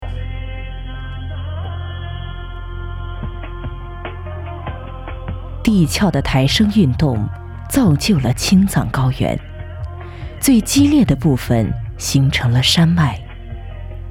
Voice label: 纪录青藏高原

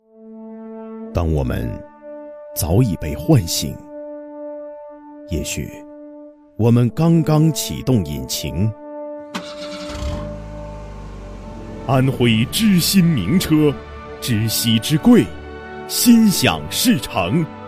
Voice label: 车企 广告; 安徽知鑫名车版

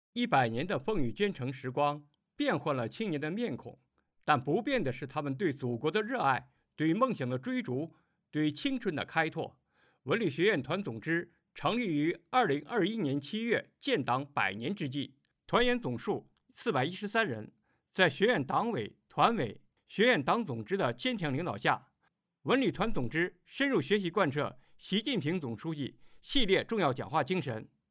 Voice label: 汇报片

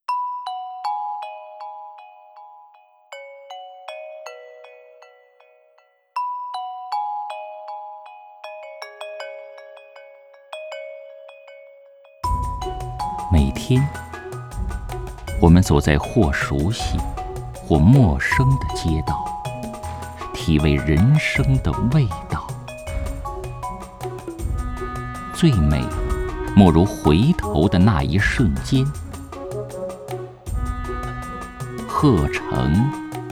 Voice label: 城市宣传片; 齐齐哈尔

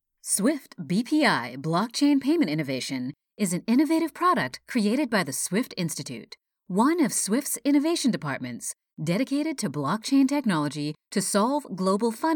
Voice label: 外籍美式女声动画

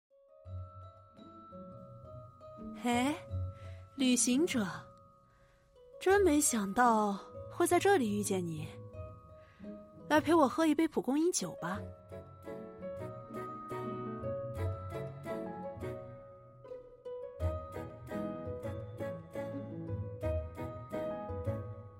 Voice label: 角色模仿; 温迪; 原神

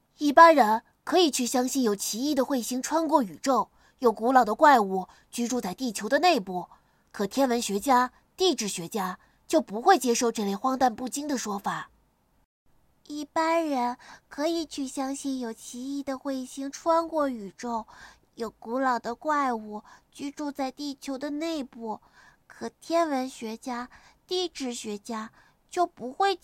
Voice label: 音色正太萝莉少女御姐老年

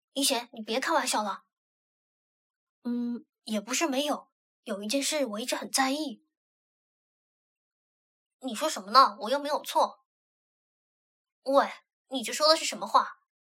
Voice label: 正太音

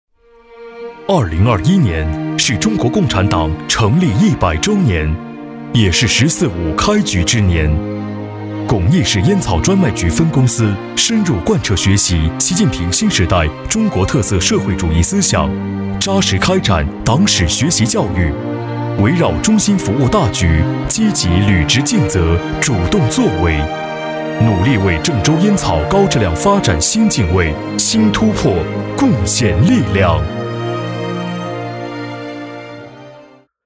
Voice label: 烟草局, 浑厚大气, 专题片/宣传片配音, 青年（18-35）, 普通话, 男; 巩义

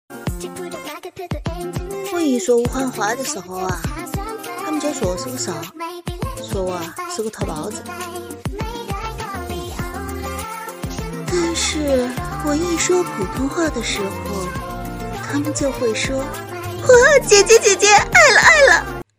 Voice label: 方言普通话; 方言VS普通话

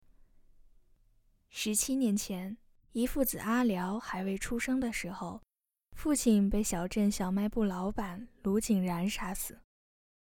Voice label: 密室逃脱旁白

